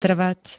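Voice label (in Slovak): "trvať", Slovak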